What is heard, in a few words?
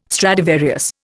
"Stradivarius", Indian